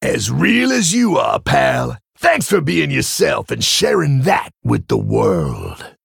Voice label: Male Tauren Victory